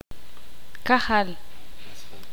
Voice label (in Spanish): "sangre", ixil